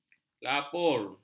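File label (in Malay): "lapur", Malay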